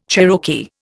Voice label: "Cherokee", Indian

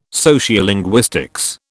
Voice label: "sociolinguistics", British

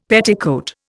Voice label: "petticoat", Indian; Dictionary

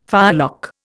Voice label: "firelock", Indian

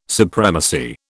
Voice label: "supremacy", British

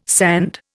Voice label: "sand", Indian

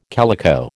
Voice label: "calico", American

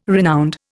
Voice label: "renowned", Indian

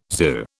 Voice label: "zoo", British

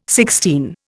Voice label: "sixteen", Indian

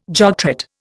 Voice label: "jogtrot", Indian

